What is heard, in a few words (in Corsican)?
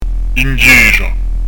in ghjesgia, pronuncia; dizco grammatica